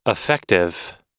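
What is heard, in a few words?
"effective", English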